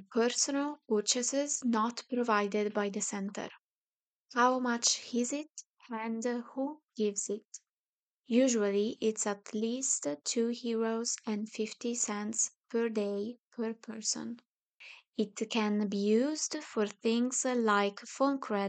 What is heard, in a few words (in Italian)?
pocket money, inglese